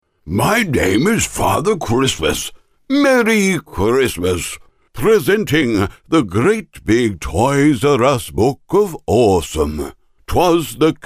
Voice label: SANTA VOICE